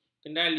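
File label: "kendali", Malay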